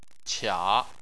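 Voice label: "qia", Chinese, tone 3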